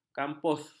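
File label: "kampus", Malay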